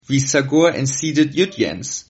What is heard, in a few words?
say again, Sylt Frisian